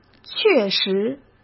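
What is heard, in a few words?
"确实", Mandarin Chinese; hsk; chinese-flashcards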